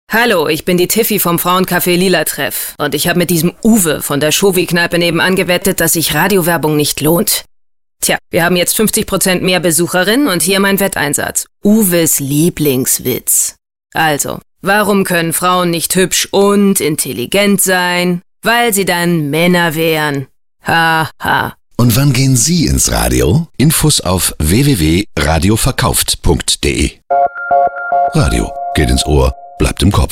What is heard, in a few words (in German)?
Radiospot Funkspot; Motiv Frauencafé